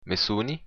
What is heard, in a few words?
my name is, occitan